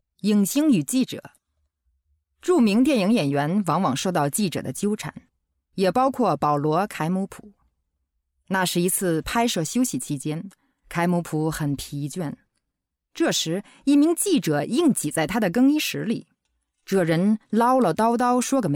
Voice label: besucher chinesisch; LOFT VOICES

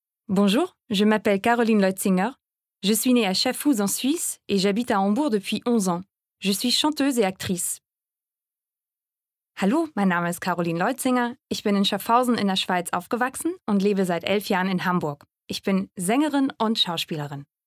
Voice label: FRA DEU; LOFT VOICES

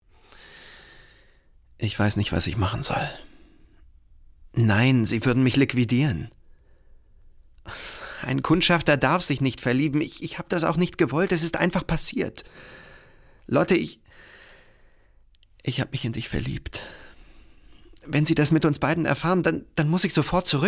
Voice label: synchron

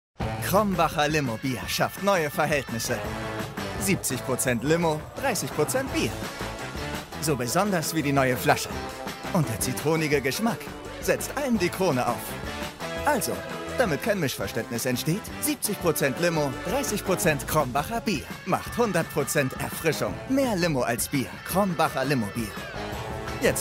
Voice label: Werbung; Krombacher

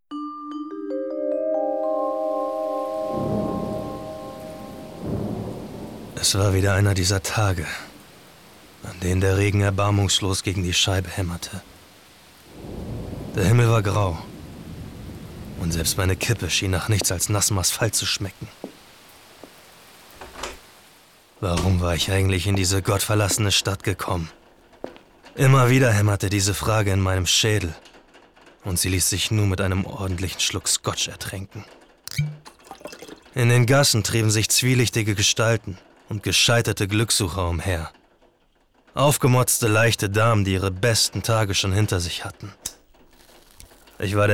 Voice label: Hörspiel, Audio Drama, German; Audiobook (Hörbuch)

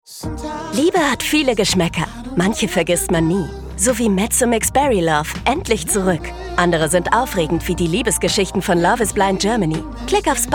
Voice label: Werbung; Mezzo Mix

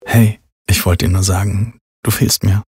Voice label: Werbung Snippet; Samsung

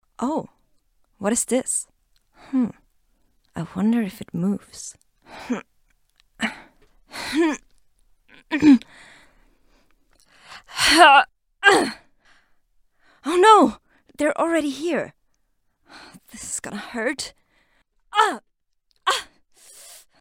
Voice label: Englisch Computerspiel; ABC Roll; LOFT VOICES

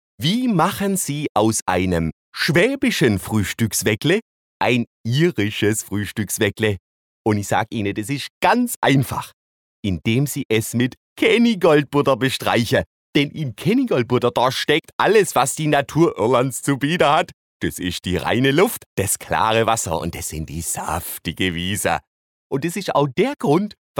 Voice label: dialekt schwaebisch

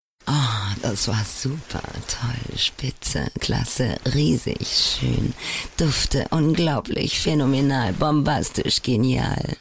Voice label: werbung synonyme lexikon; LOFT VOICES

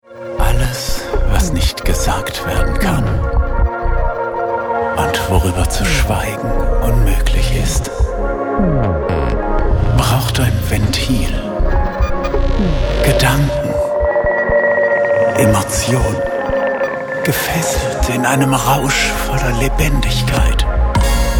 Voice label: Werbung Geheimnisvoll Sinnlich Deutsch

